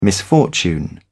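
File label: "misfortune", British English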